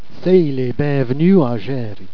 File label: "seyiz les beinv'nus", Jèrriais